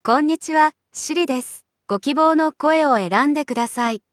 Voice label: ja-JP; iOS 26